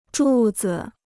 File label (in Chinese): "柱子", Chinese